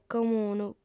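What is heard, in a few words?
"comunu", female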